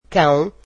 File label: "dog", portuguese